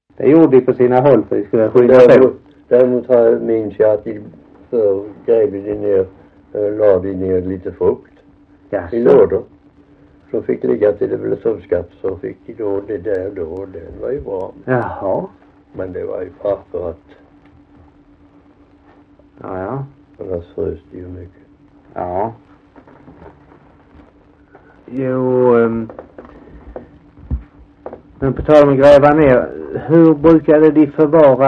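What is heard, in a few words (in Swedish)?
Alfshög Halland, dialekter; Folkminnen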